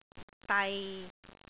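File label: "dai6", Cantonese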